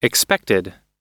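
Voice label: "expected", US English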